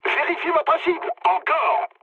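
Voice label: French player friendly fire mood med; War Thunder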